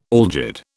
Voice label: "algid", British